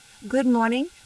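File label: voice acting, es, female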